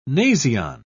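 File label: "nasion", British English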